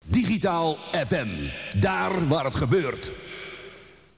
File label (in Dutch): Jingle, Daar waar het gebeurd (Voice over); Digitaal FM